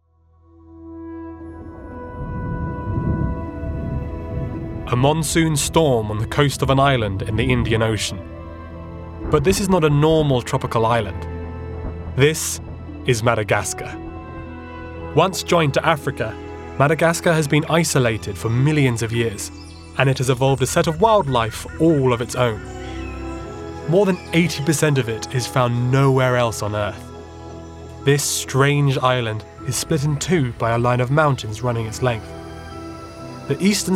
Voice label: Documentary Reel